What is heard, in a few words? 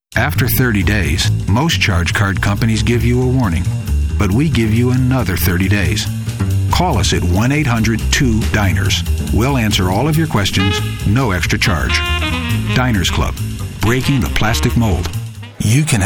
Commercial, Voice Over